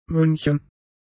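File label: "München", German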